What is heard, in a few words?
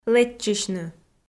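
"лэччысьны" Komi, female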